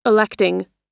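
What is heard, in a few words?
"electing", American English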